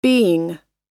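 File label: "being", American English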